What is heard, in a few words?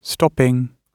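"stopping", British English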